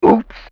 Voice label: "Oops", Yamaha DD-35

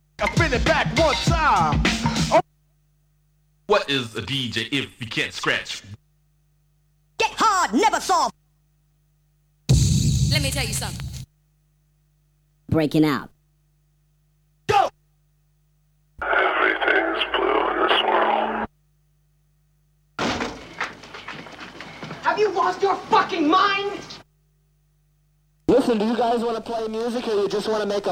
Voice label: Vocal Drops; Kniteforce; 1000 Samples Volume 1

